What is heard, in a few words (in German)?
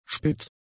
"spitz", german; adjectives